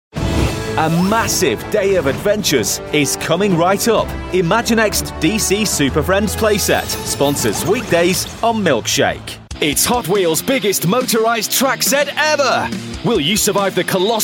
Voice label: kids commercials sponsorship